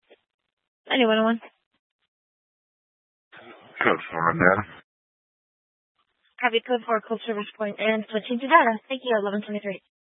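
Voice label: Sheriff Dispatch, Scanner audio recording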